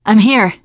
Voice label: scenario join, teen girl; player; survivor; voice